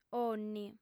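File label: "onni" Sardinian, female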